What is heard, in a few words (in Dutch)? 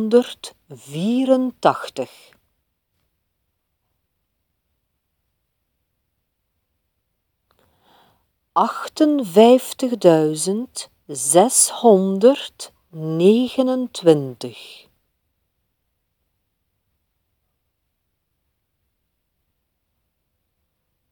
Cijferdictee